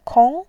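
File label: "kong2", Mandarin Chinese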